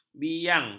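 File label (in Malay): "biang", Malay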